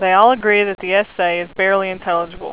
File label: woman